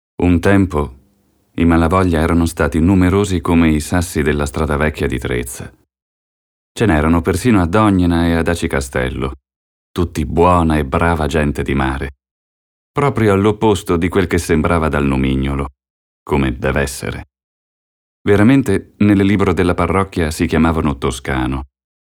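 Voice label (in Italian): Descrittivo, voci maschili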